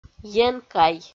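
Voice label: "енкай", Komi, female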